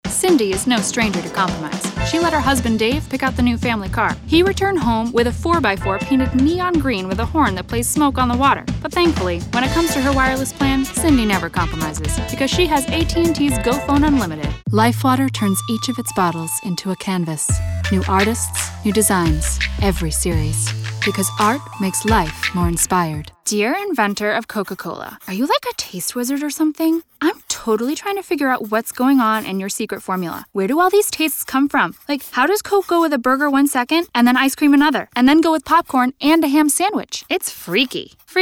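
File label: Commercial, voice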